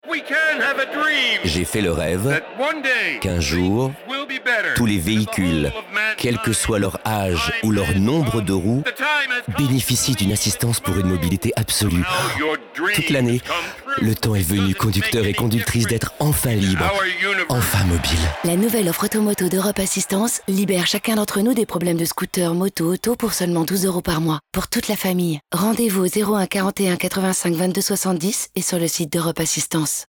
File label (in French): SPOT RADIO; EUROP ASSISTANCE